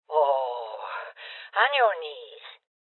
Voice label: Order To Surrender Female Old

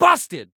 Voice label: 25 Year Old Man Says Busted; Blastwavefx

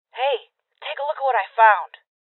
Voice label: Voices Open Safe Female

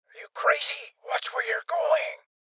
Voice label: Voices Guardian Male Old; Rebel Cops

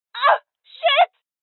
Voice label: Voices Injury Female

